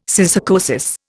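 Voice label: "syssarcosis", Indian English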